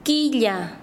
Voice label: "luna", Quechua Chanka

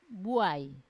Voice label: "buai", Wamesa; character

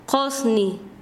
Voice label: Chanka, quechua; humo

